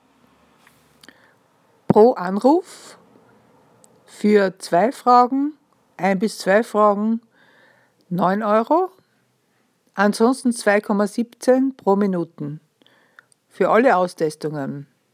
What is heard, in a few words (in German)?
Sprachnachricht